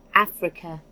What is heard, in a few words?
"africa", en GB; gcompris